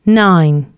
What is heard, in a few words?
announcements, female